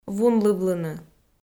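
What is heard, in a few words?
"вунлывлыны", Komi, female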